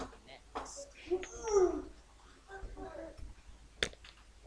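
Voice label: "hip", spoken word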